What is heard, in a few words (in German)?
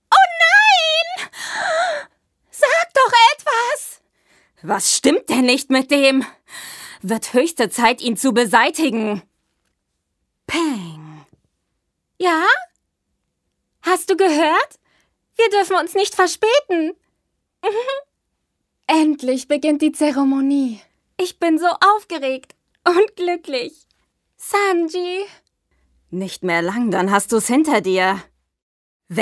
Synchron Anime; voice